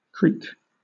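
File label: "creak", British English; pronunciations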